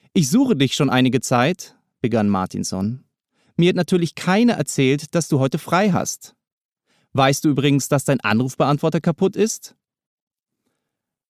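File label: German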